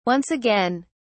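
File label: "once again", English, British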